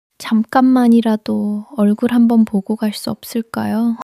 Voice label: sadness

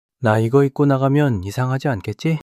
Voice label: shy

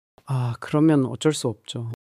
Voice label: sadness

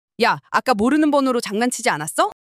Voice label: anger

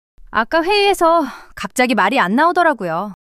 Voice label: fluster

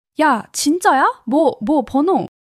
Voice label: excitement

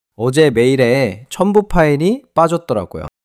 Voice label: neutral

